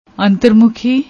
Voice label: "anter mukhi", Punjabi